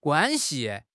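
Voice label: "guan1 xi5", Chinese; HSK3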